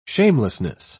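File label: "shamelessness", British English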